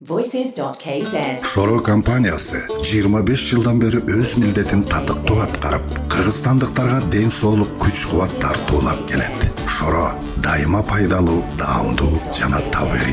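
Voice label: Kyrgyz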